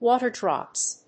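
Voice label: "waterdrops", English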